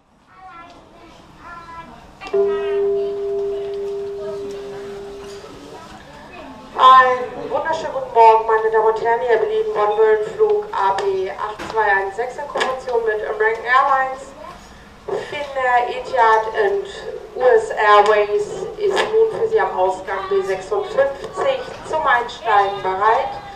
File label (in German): Flughafen Ansagen; Air Berlin 8216